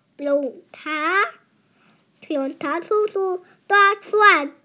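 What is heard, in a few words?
"police", Mandarin Chinese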